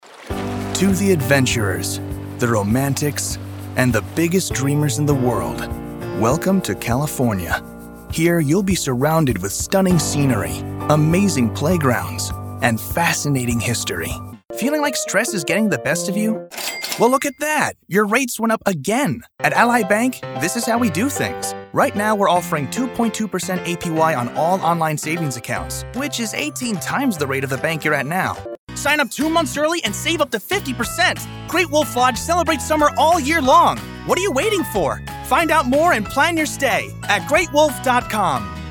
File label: Commercial, Men